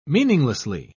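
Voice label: "meaninglessly", British English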